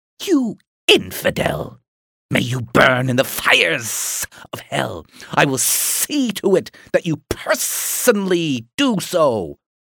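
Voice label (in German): US English, Schauspiel Dynamisch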